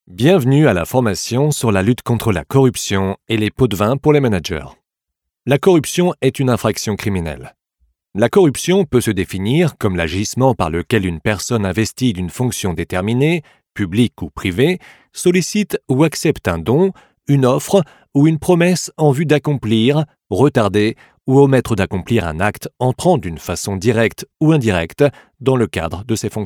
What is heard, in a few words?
e-learning; Anti-corruption; French voice-over